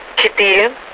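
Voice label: Russian speech, Mi-8MT RI65; DCS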